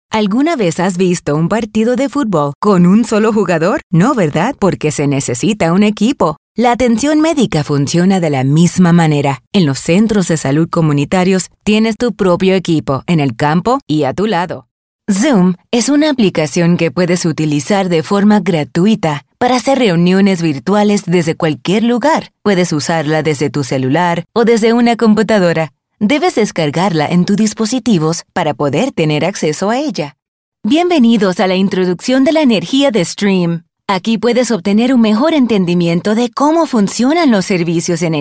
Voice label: Spanish Explainer Videos